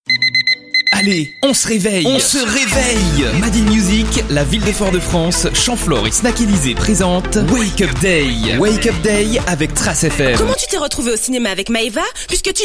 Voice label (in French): Voix off